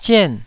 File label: "zien4", taiwanese